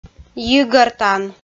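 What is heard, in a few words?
"йигӧртан", Komi, female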